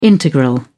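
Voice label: "integral", British English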